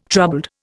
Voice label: "troubled", Indian